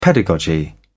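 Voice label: "pedagogy", English, British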